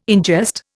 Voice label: "ingest", Indian

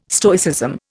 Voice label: "Stoicism", Indian

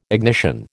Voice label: "ignition", American

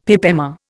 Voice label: "pip emma", Indian; Dictionary

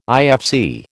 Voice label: "IFC", American

